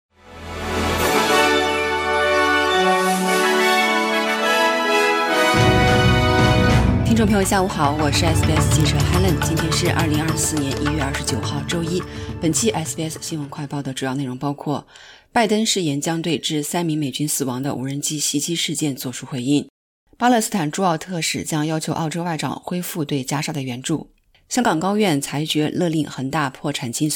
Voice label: mandarin newsflash; 拜登誓言将对约旦无人机袭击事件作出回应 中东局势升级; SBS Chinese; SBS新闻快报